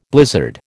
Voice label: "blizzard", American

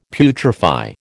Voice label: "putrefy", American

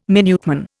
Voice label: "Minuteman", Indian

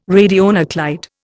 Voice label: "radionuclide", Indian

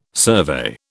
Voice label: "survey", British

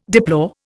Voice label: "deplore", Indian

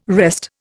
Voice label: "wrest", Indian

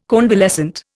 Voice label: "convalescent", Indian

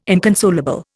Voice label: "inconsolable", Indian

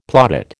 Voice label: "plaudit", American

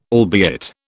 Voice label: "albeit", British

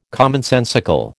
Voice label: "commonsensical", American

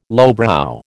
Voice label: "lowbrow", American

